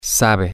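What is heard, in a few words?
Spanish, male; he Knows How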